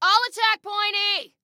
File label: ATTACK, Female